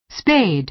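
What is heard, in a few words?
"spade", American English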